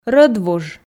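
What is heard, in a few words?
"рӧдвуж", Komi, female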